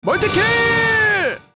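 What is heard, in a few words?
multikill, Korean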